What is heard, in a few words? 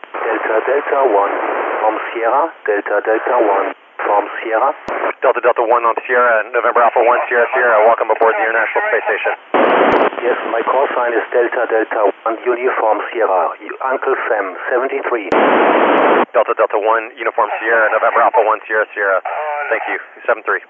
QSO; NA1SS